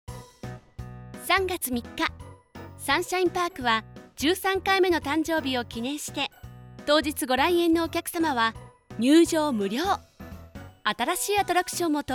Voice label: female